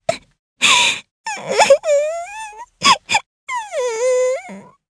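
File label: Vox Sad, Japanese; Aisha; kingsraid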